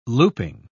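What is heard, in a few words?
"looping", British English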